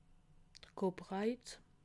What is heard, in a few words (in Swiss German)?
"copyright", alsace